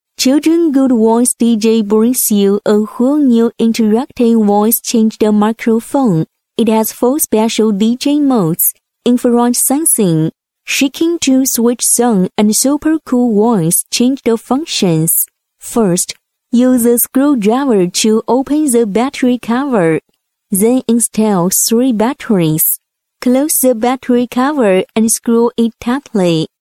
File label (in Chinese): 英女 麦克风